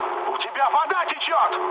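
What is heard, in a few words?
Russian damaged water mood med, speech; War Thunder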